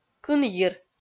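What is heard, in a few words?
"кынйир", Komi, female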